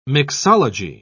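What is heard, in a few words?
"mixology", British English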